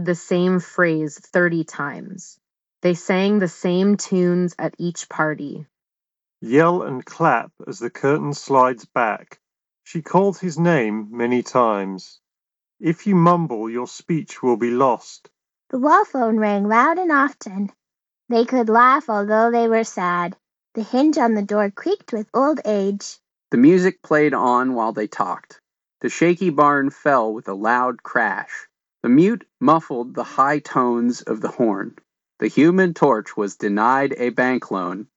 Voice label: open mic ideal; Sony linkbuds

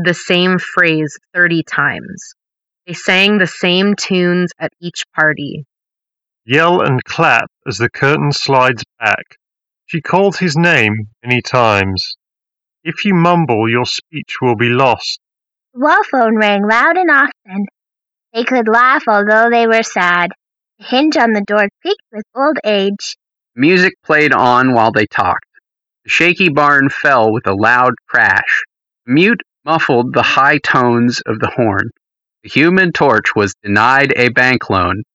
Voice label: Edifier W830NB Ideal microphone sample

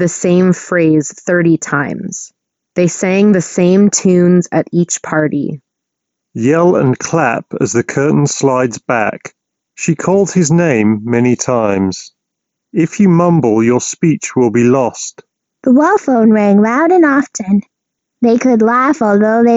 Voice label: Ideal microphone sample; Master Dynamic MW75 Neuro

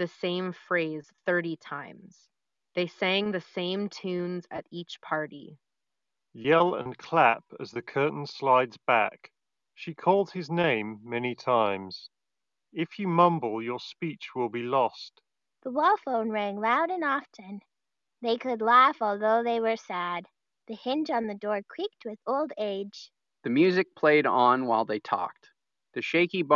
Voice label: Ideal microphone sample; JBL Live 660NC

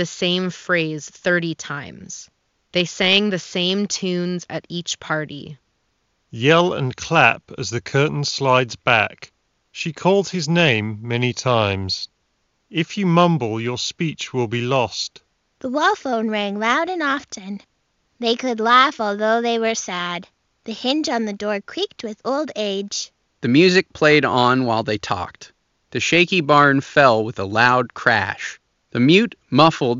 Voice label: Ideal microphone sample; Steelseries Arctis Nova 4 Wireless

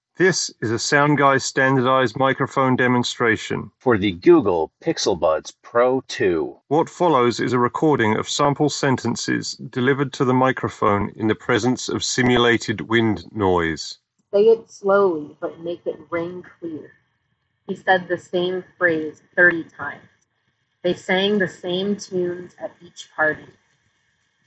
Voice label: mic windy; google pixel buds pro 2

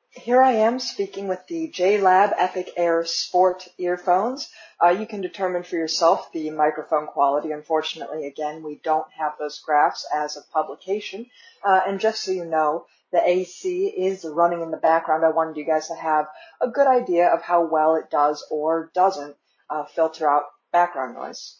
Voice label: JLab Epic Air Sport Microphone

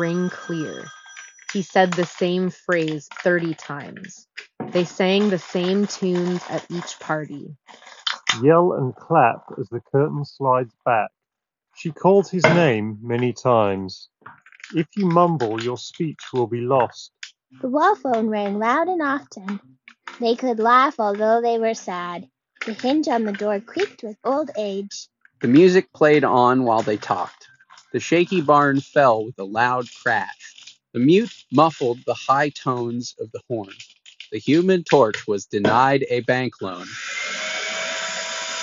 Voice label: Office microphone sample; Sony WF-C700N

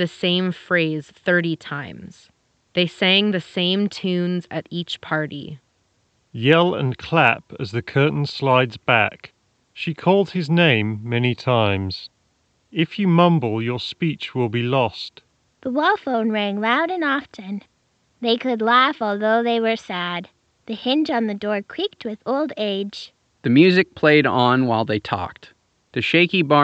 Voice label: Alienware 720H wired Ideal microphone sample

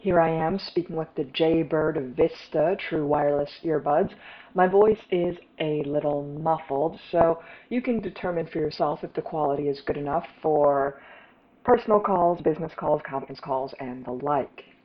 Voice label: microphone demo; Jaybird Vista

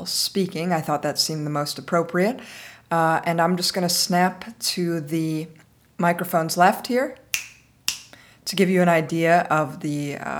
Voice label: Shure MV88 voice 60 stereo

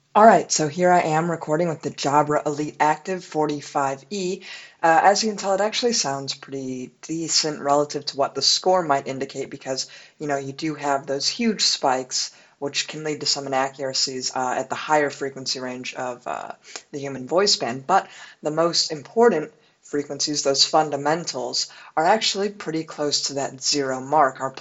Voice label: microphone demo; Jabra Elite Active 45e

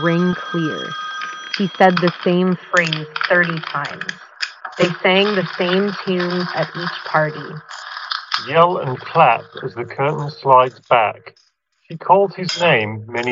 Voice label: Samsung Galaxy Buds 2 Office microphone sample

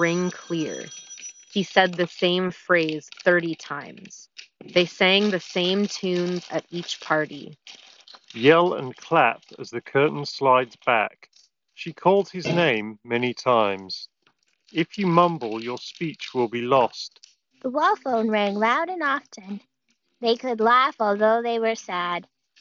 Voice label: Google Pixel Buds Pro Office microphone sample

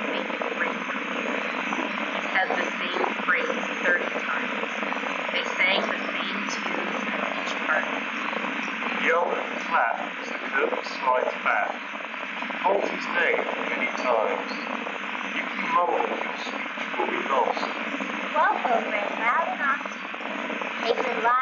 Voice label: Wind microphone sample; Bose Ultra

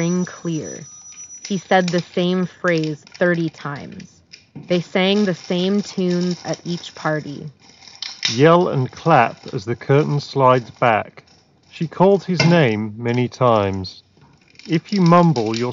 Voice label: Office microphone sample; Jabra Elite 4 Active

